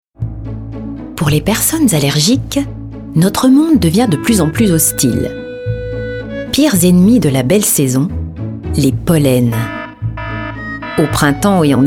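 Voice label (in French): DOCU, Voix off; société/santé/allergies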